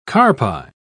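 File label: "carpi", English, British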